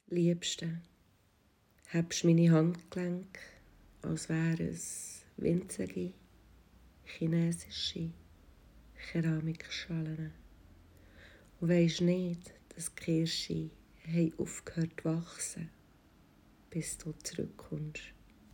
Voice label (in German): Bärndütsch